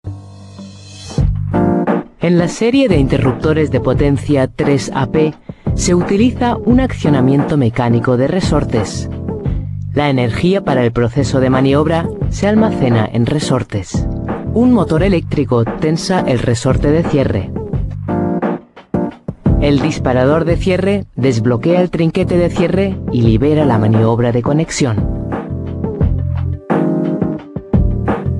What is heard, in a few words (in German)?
spanisch; siemens